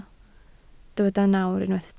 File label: "nawr", Welsh